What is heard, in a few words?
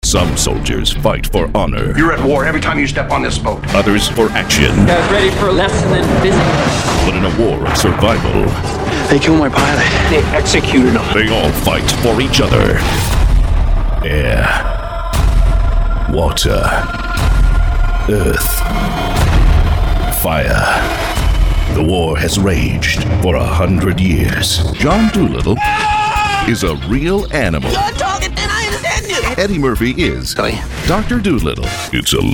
Trailer